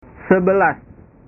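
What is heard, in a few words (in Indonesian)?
"sebelas", Indonesian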